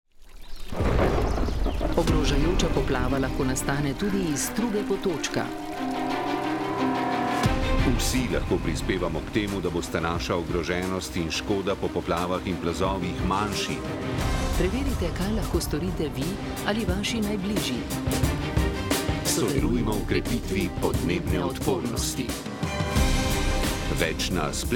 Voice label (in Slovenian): Radijski spot; krepimo odpornost; krepimo odpornost naselij na podnebne spremembe